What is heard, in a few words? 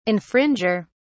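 "infringer", British English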